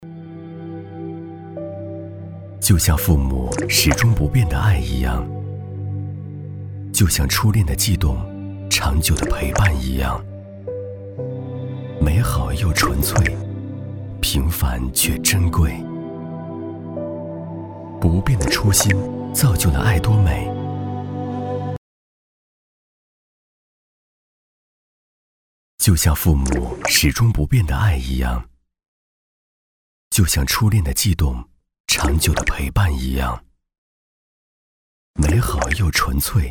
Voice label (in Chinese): 男 广告 磁性 优雅 美容; 艾多美